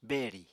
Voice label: "beri", Apatani

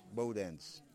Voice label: "ten", Yimas

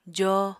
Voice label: "joo", Apatani

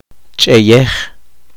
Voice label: poqomchi; labrar oro plata

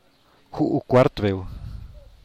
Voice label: "huu cuart beu", quarter moon

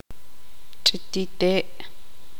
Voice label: tzutujil

